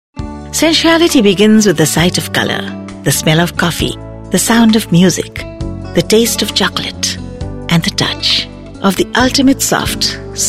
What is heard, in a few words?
English, female voice; Boro plus